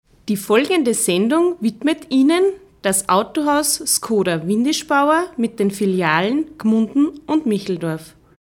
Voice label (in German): Ansage